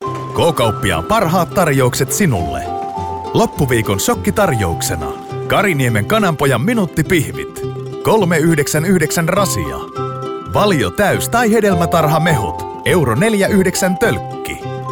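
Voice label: Finnish commercial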